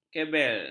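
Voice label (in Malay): "kebel", Malay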